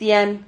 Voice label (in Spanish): "tian", Silabas